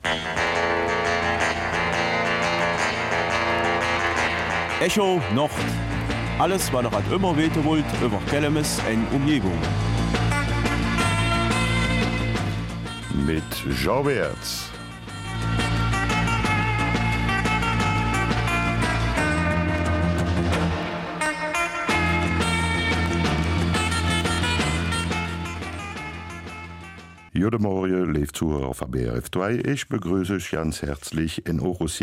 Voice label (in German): Kelmiser Mundart; Alowahe und KKK Herbesthal in Jubelstimmung